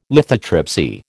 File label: "lithotripsy", American